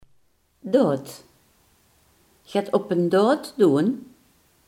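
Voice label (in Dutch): "daad" Limburgish dialect word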